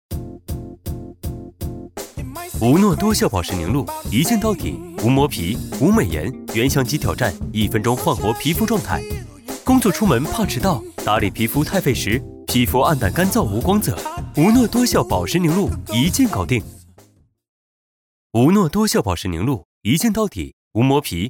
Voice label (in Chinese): 信息流广告年轻时尚; 无锘保湿